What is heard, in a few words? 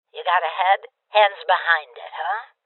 Order To Surrender Female Old; rebel cops 2019 gamerip